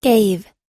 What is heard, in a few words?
"gave", us